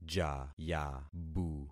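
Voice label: "jayabu", concatenated syllables; Affixing experiment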